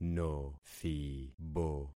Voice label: "nothibo", concat syllables; Affixing experiment